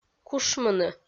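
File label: "кушмыны", Komi, female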